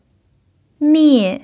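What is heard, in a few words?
"niè", Mandarin Chinese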